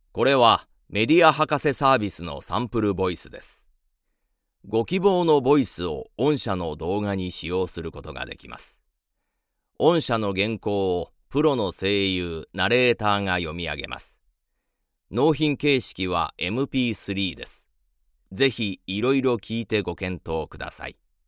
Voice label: 50 men, narration sample voice